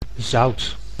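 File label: "zout", Dutch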